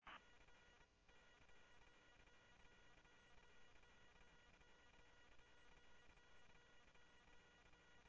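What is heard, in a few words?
Sheriff East County, scanner audio trunk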